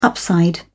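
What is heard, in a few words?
"upside", British English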